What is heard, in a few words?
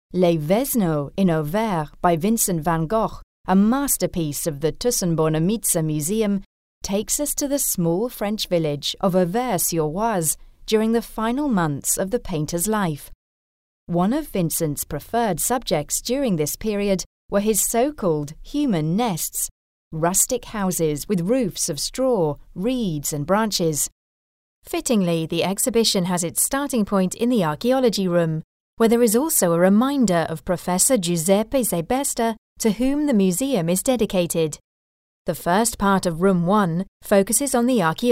english british narration; With a warm, authentic sound